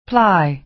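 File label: "ply", American English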